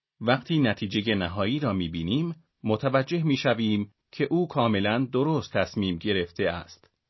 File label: male real